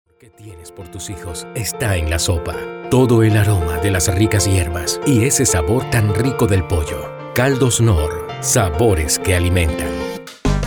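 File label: Spanish Latin America male voice over, sales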